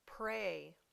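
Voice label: "prey", English word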